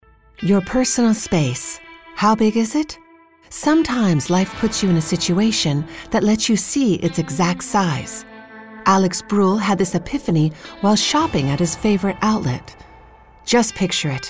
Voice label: female american english wear comercial